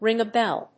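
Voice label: "ring a bell", English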